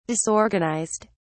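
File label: "disorganised", English, British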